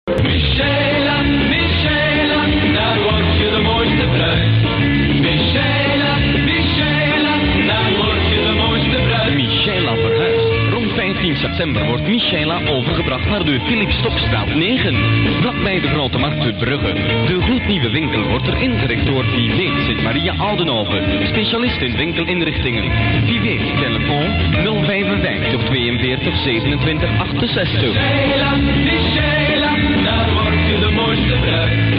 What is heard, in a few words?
commercial